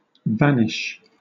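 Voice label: "vanish", British English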